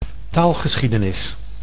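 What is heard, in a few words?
"taalgeschiedenis", Dutch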